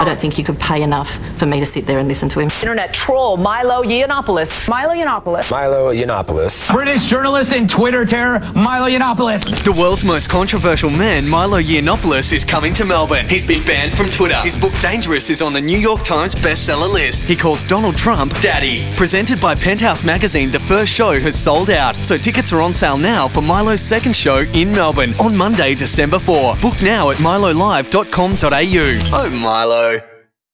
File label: radio ad; Milo Yiannopoulos